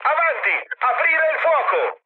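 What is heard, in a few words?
Italian attack position mood med, speech; War Thunder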